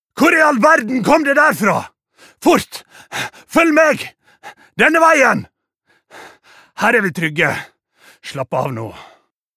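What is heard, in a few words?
dub, Norwegian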